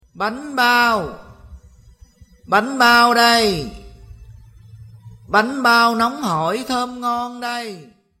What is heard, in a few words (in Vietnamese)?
Tieng rao banh bao